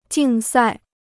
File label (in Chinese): "竞赛", Chinese